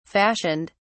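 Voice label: "fashioned", British English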